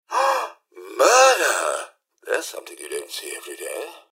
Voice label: generic robot murder; mrhandy; npc

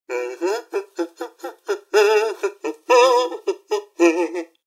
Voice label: idle chatter, mrhandy; npc

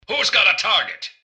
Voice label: generic robot lost idle; mrgutsy; npc

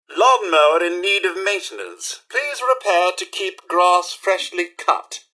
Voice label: robot talk; mrhandy

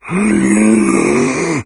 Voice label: chatter, morgue; doom3

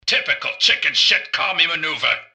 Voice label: generic robot lost to normal; mrgutsy; npc

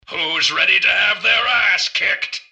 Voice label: generic robot normal to alert; mrgutsy; npc

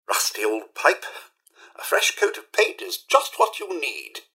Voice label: idle chatter, mrhandy; npc

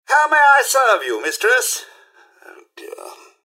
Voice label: hello, robot; mrhandy

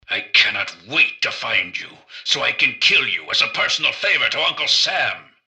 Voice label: generic robot alert idle; mrgutsy; npc

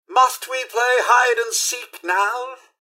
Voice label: generic robot combat to lost; mrhandy; npc

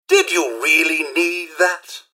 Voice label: steal, robot; mrhandy

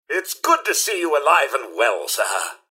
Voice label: robots dialog hello; mrhandy; npc

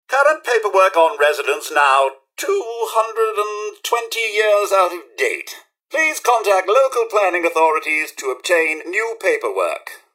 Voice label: robot talk; mrhandy

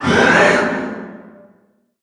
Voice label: vox pain

